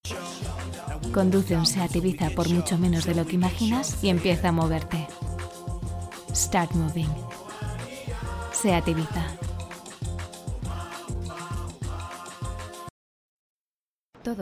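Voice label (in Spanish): generico España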